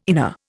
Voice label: "inner", Indian